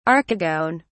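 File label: "archegone", English, British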